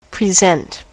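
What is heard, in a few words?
"present" verb, US English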